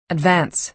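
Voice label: "advance", American English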